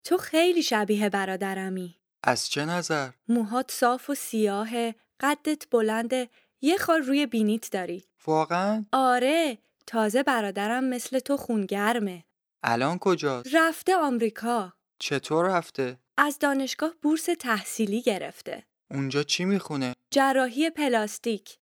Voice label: Dialogue lesson Farsi